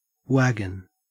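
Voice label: "wagon", Australian English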